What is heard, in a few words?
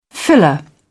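"filler", British English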